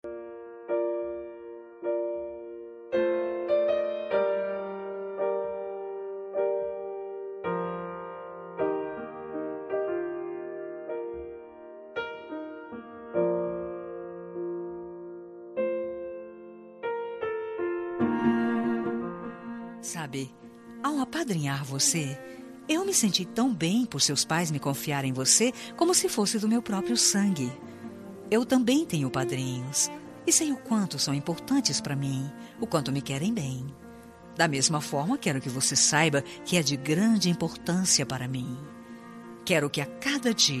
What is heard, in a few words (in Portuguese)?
afilhada fem, Aniversário de Afilhada – Voz Feminina; Telemensagens para todas as ocasiões